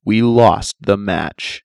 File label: lost, announcer; red; construct